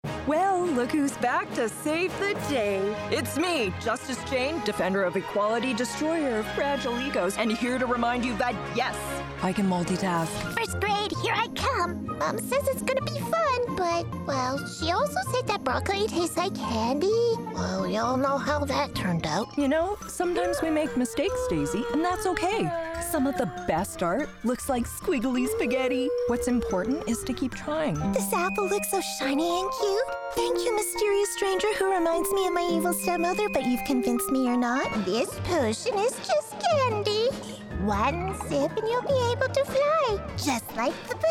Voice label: Animation